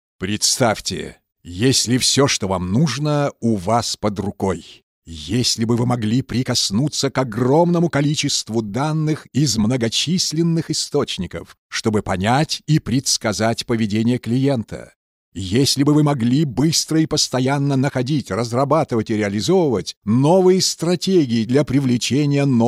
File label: russian voice corporate